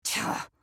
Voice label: Emote Negative; Asher